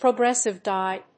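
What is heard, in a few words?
"progressive die", English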